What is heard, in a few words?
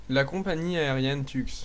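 plane, French; tuxpaint-stamps; vehicles; flight; planes; cartoon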